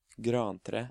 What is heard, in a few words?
"Grantre", Norwegian